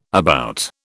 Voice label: "about", British

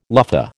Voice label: "luffa", American

